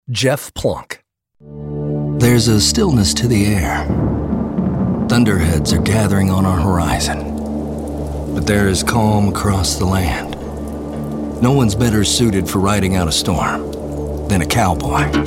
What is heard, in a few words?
Commercial